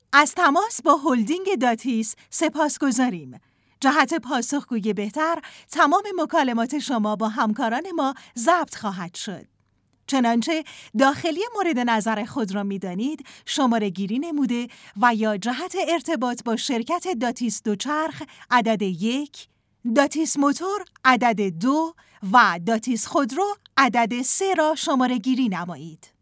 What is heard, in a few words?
IVR